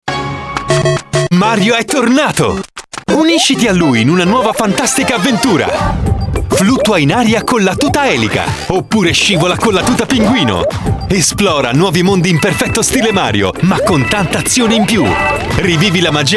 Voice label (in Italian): giovane, energico, gioioso; Wii Mario